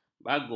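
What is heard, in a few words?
"baguk", Malay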